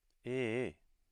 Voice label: rising-falling tone